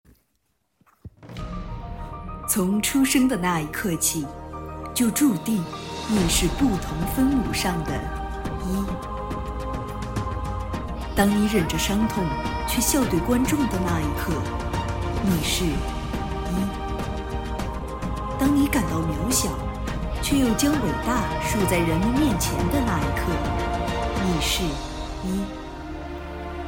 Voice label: 宣传片纪录片配音; 配音秀作品样例

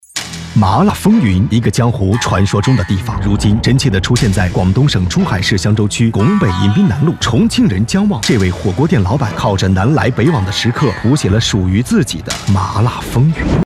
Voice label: 广告 配音

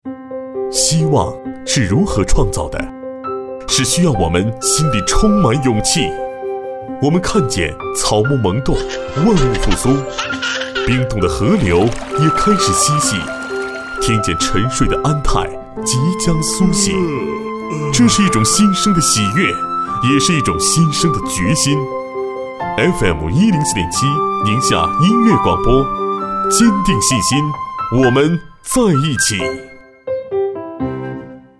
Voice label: 公益广告

